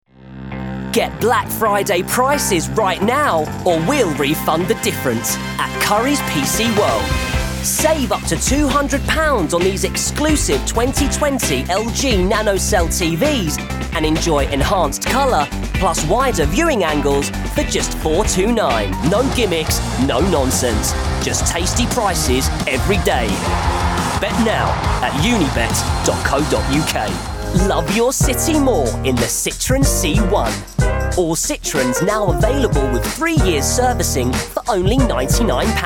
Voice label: Commercials, Light tone. Young, light & peppy. London.; Teens-20s; Professional singer